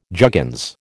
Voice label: "juggins", American

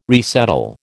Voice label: "resettle", American

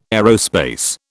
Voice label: "aerospace", British

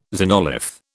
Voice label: "xenolith", British

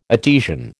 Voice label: "Etesian", American

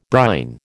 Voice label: "brine", American

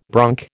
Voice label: "bronc", American

